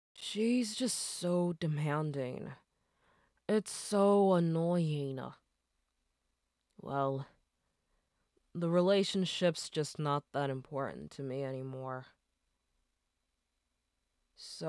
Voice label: Teen Girl

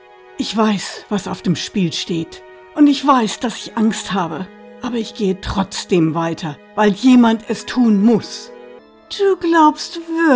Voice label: German Deutsch Charakter

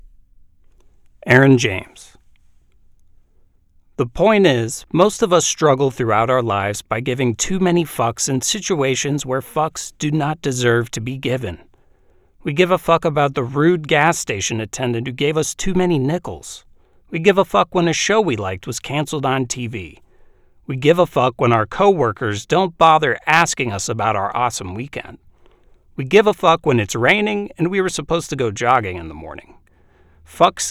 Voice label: Snarky nonfiction narrator

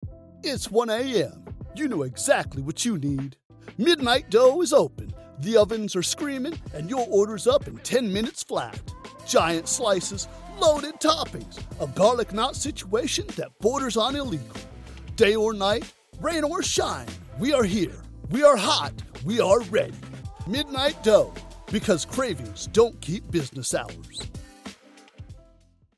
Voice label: Commercial; Midnight Dough

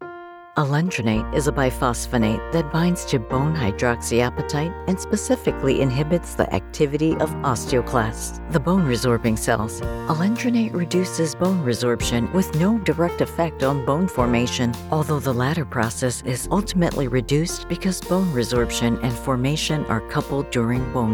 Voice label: With Music; Alendronate; optometric

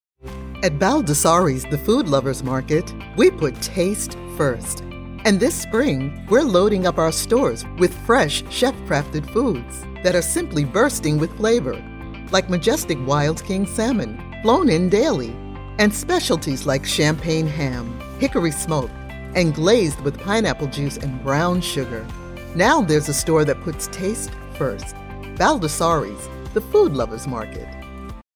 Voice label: Market Commercial; Baldassarie's Market

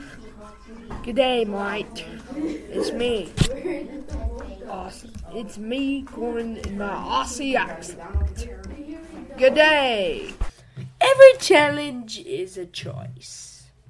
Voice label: Character Reel

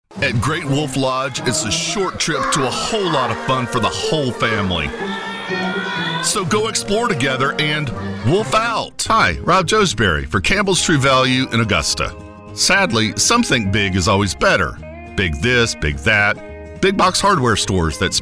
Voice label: Commercial

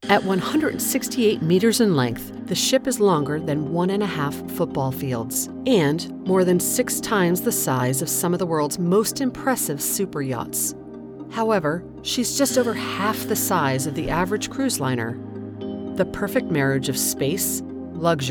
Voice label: narration with music, Female voice

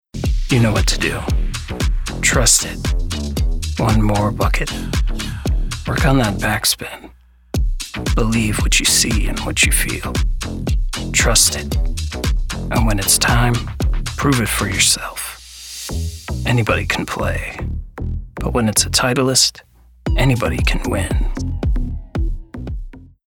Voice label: VO Style Charismatic, Authentic; Houston, TX

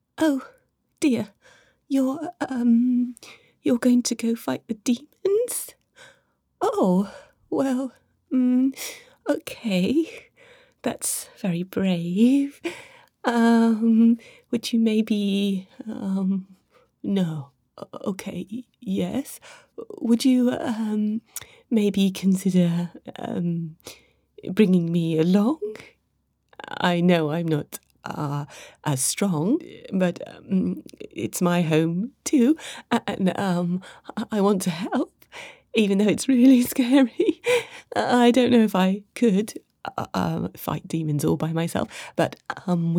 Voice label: nervous tiny sidekick

